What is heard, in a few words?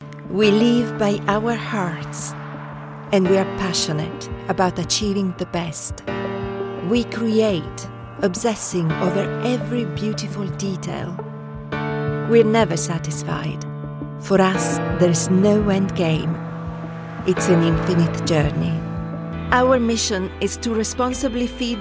English European Accent